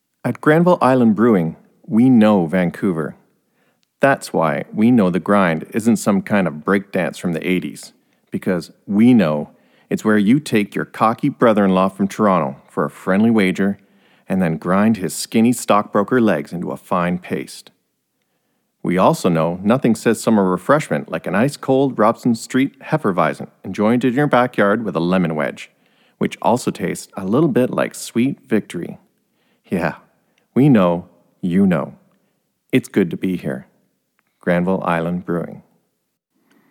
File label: Commercial, Voice Over